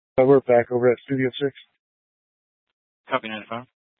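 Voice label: Police Dispatch, Scanner audio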